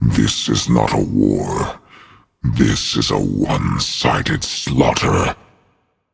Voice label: taunt directed; fenrir; bellona

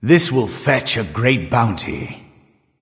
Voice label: taunt directed; fafnir; smite; Ullr

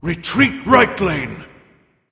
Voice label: vox retreat; smite; xingtian

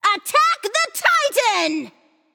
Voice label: VOX Attack; smite; izanami

